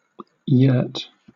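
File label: "yurt", British English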